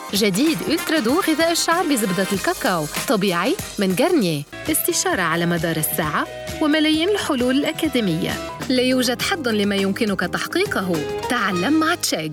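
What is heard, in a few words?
Arabic, female, commercial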